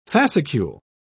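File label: "fascicule", British English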